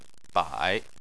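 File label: "bai" Chinese, third tone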